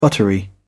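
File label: "buttery", English, British English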